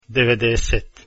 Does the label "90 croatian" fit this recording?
Yes